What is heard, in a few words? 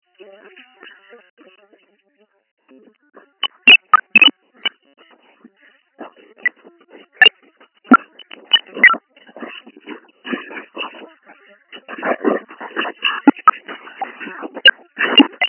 bbc news secure unaudible